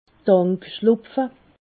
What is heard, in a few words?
"tankschlupfe", alsace